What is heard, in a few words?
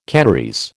"Canarese", American